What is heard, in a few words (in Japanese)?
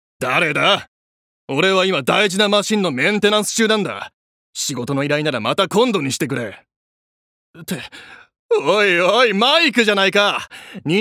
外画